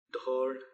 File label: "dhooR", Palula